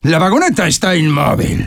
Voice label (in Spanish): Sniper cart stopped offense soft, es